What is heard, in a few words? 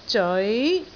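"zeoi2", Cantonese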